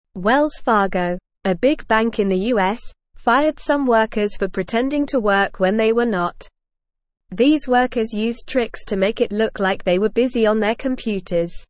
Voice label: English Lower Intermediate NORMAL Reading; Wells Fargo Fires Workers for Pretending to Work